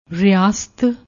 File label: "riyast", Punjabi